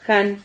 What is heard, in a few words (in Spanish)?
"jan", Silabas